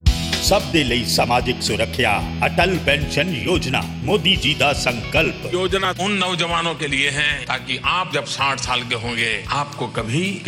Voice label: Atal Pension Yojana, Punjabi